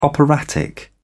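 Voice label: "operatic", British English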